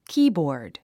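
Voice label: "keyboard", English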